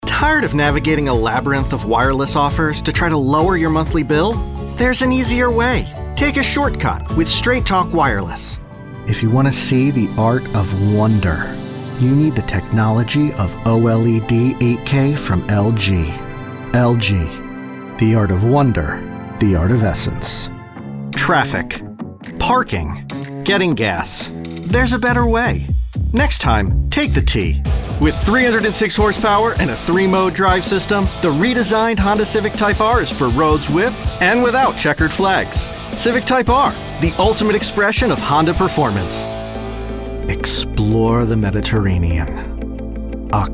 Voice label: Commercial VO